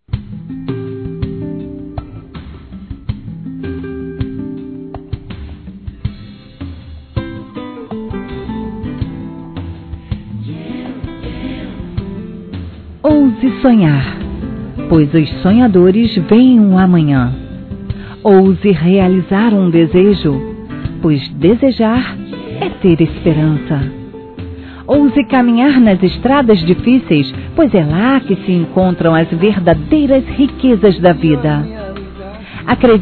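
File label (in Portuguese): Aniversário de Afilhados, female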